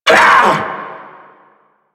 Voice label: Vocal Pain